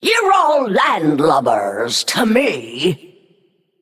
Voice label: Female Naga PIRATE DAY

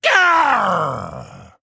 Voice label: Male Orc Death

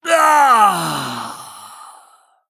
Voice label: Male Sanlayn Death

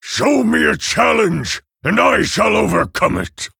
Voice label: Male Orc Ogre Picked

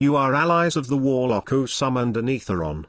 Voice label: Male Night Elf

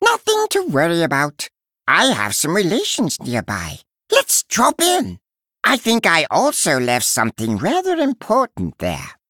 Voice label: Male Murloc; Finley

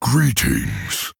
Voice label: Male Orc, Greetings; Thrall

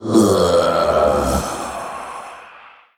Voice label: Male Death; Sha

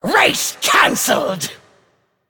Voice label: Female Dragon Attack

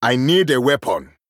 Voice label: Male Troll ERROR NEED WEAPON

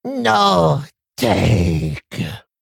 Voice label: Male Kobold Death

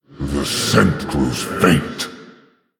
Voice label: Male Orc Time

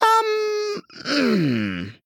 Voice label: Male Gnome Attack

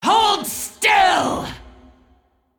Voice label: Female Dragon Undead; Undead Onyxia; In Game Boss Idle

